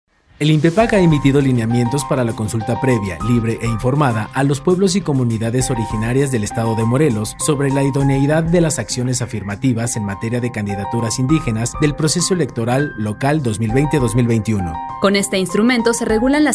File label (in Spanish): perifoneo; difusion comision asuntos indigenas